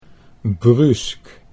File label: "bruusk", Dutch